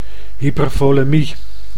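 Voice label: "hypervolemie", Dutch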